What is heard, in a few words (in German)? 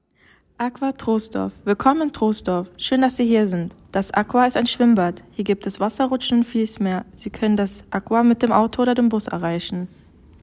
Deutsch; Aggua